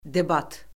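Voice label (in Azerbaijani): "debat", Azerbaijani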